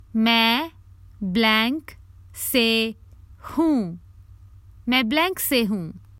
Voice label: Common Hindi Phrases for travel Im from insert name of city